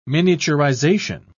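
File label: "miniaturisation", British English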